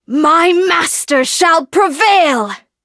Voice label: battle en

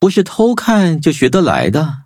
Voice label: levelup zh-hant; Cookie Run

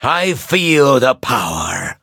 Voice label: level up, en; cookie run

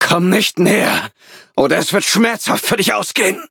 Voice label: loading, de; cookie run

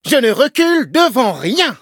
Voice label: levelup, fr; cookie run

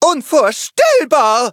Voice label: defeat, de; Cookie Run

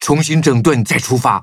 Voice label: defeat, zh-hant; Cookie Run

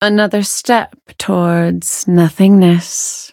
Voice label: levelup, en; cookierunwiki

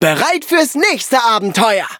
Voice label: level up, de

